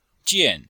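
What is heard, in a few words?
"jian4", chinese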